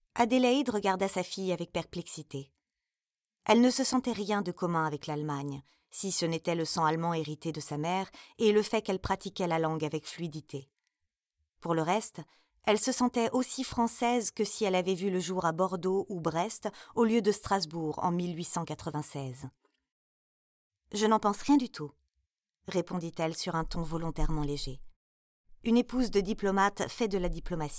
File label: AUDIOBOOK; Les heures insouciantes